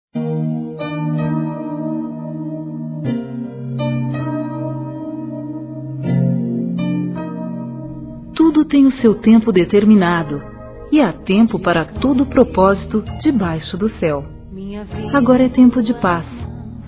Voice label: RECONCILIAÇÃO (F), Telemensagens, Gospel; fem; Telemensagens 2017

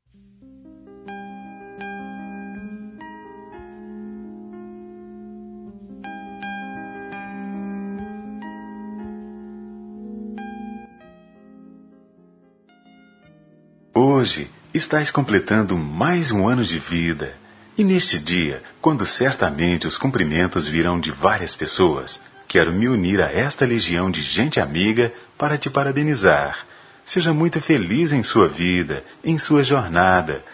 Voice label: Aniversário Neutro M; Telemensagens 2017; Aniversários; Aniversários diversos

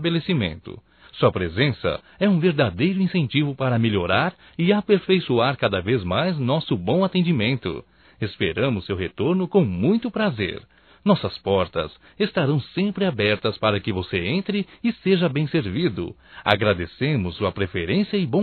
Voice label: CLIENTE CAMELô (M), masc; Profissionais; Telemensagens 2017

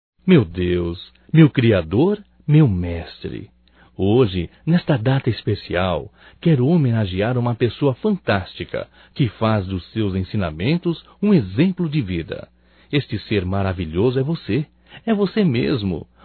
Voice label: Aniversário Religioso Masculino

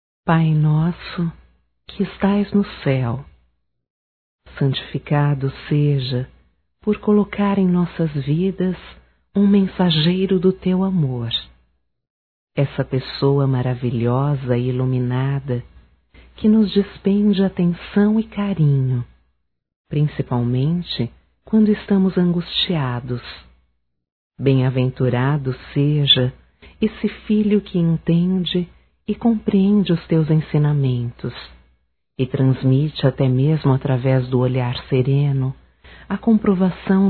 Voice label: Homenagem ao Padre Feminino; Homenagens; Telemensagens 2017

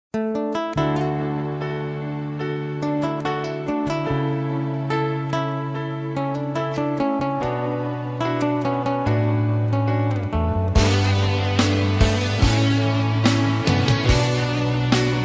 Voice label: MÃE GERAL FEMININO; Datas importantes; Dia das mães

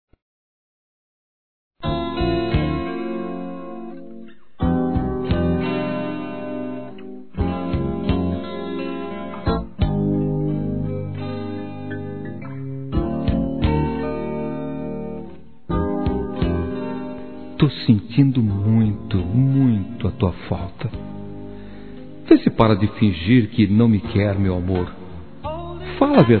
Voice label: Saudade Picante - M, Telemensagens Sensuais masc

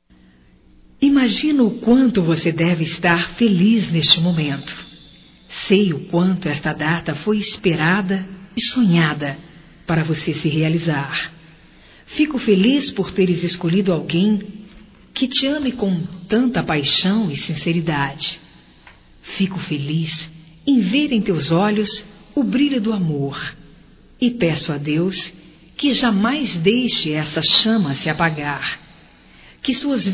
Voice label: Cumprimento Casamento Feminino; Casamentos; Telemensagens 2017

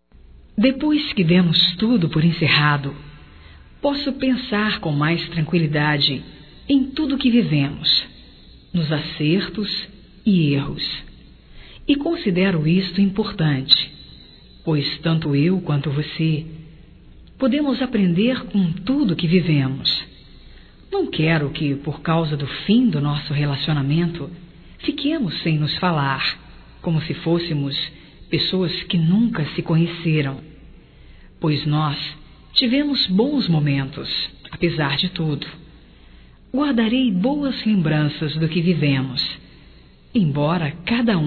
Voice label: FOI BOM ENQUANTO DUROU, Telemensagens Românticas fem

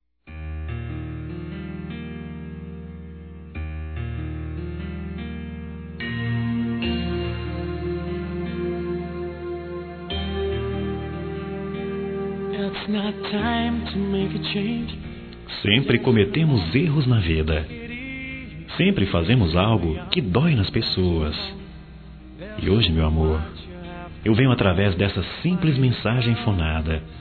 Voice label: Desculpas Romanticas, M; Telemensagens 2017

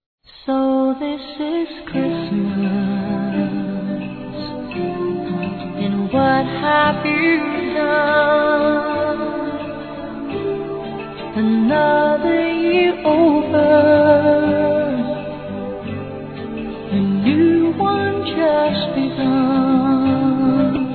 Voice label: NATAL P FILHA F, Telemensagens; Natal e Ano novo; fem

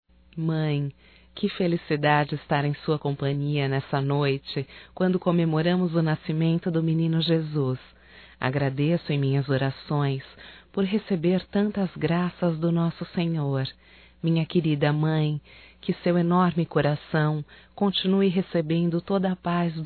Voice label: NATAL ANO NOVO MAE (F); Telemensagens 2017

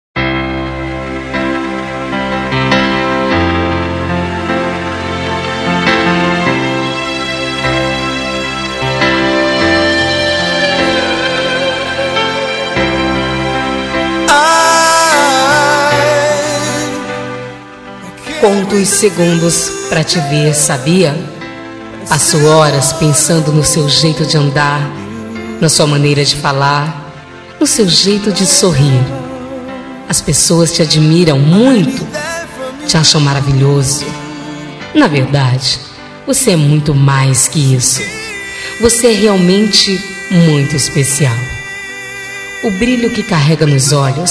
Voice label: PAQUERA FEM; Telemensagens; Conquistas Cantadas paqueras; Telemensagens 2017

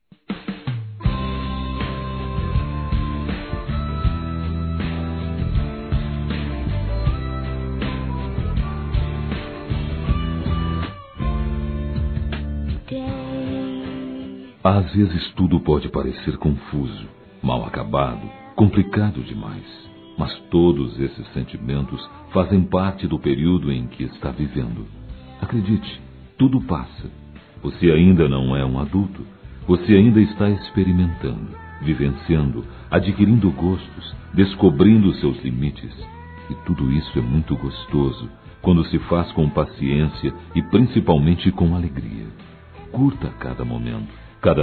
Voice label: Aniversário Adolescente Masculino

